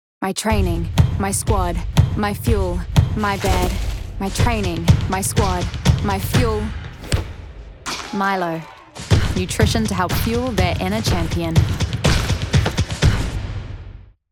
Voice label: en-AU; 15 sec; Milo

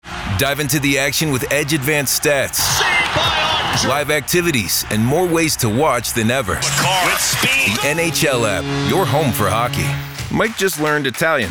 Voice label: COMMERCIAL

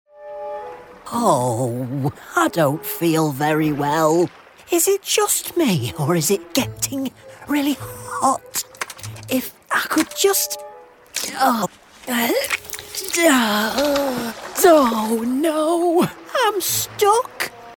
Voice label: animation old frustrated

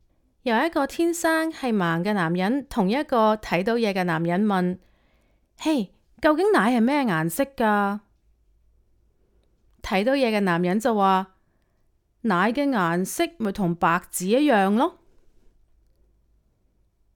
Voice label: audiobook sample Cantonese

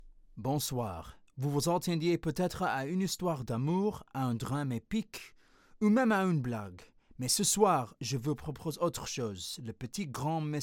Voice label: French Language Sample

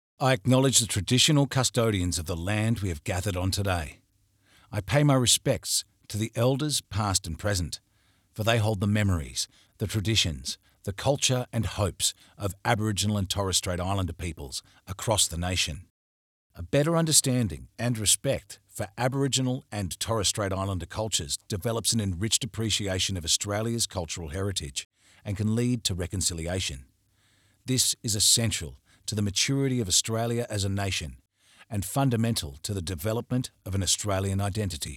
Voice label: WELCOME TO COUNTRY Elearning Opener

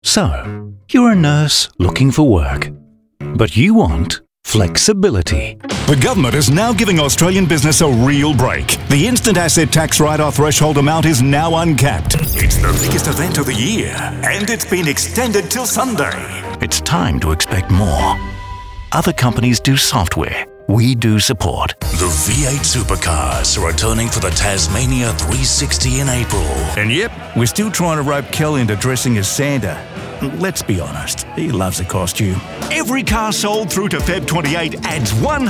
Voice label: Commercial Short

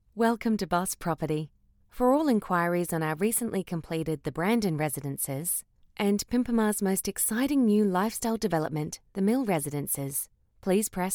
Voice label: Phone Message

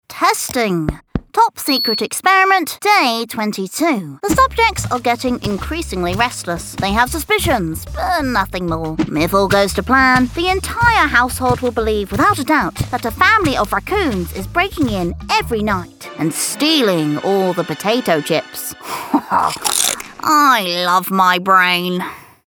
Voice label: Animation; PERCY

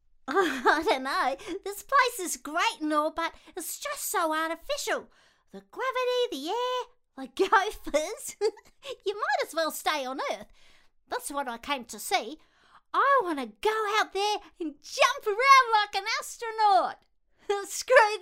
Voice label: Young kid Cartoon or Animal

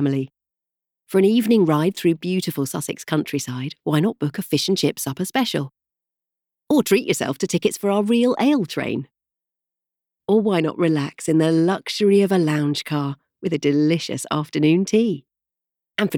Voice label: IVR ON HOLD

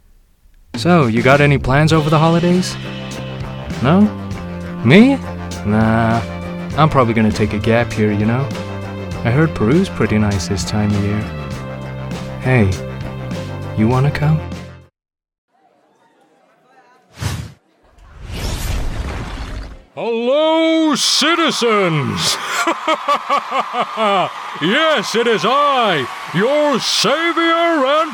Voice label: American Standard

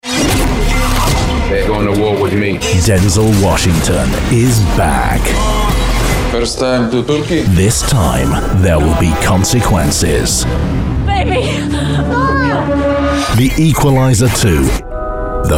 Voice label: Promos

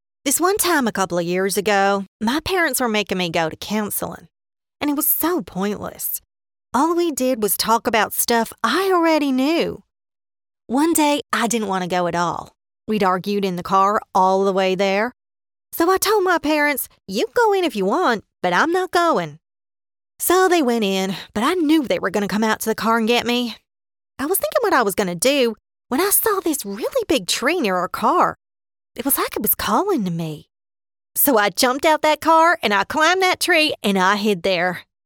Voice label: Southern US accent

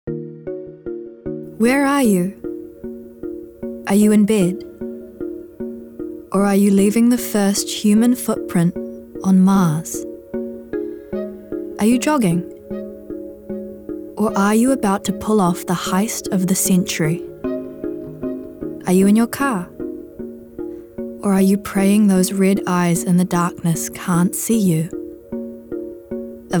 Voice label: Audible VO, en-US

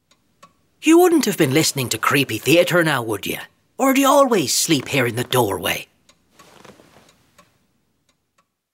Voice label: Animation Irish

